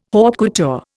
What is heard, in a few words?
"haute couture", Indian